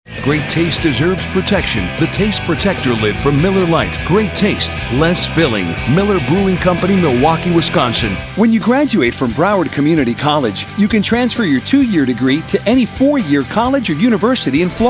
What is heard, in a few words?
ENGLISH, commercial voiceover